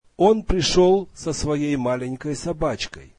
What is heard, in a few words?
russian he came with his small dog